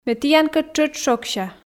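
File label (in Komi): ме тіянкӧд тшӧтш шогся, Komi, female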